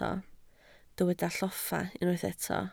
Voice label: "lloffa", Welsh

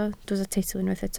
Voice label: "teitl", Welsh

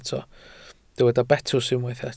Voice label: "betws", Welsh

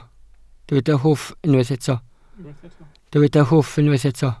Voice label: "hwff" Welsh

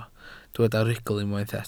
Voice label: "rhugl", Welsh

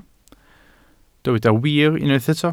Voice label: "wir" Welsh

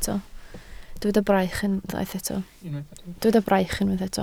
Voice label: "braich", Welsh

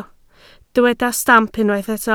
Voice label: "stamp", Welsh

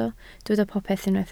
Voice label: "popeth", Welsh

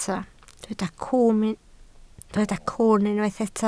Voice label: "cwwn", Welsh

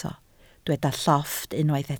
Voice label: "llofft", Welsh

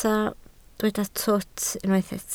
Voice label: "twt", Welsh

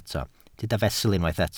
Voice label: "fesul", Welsh

